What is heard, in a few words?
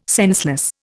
"senseless", Indian